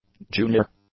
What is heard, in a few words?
"junior", english; adjectives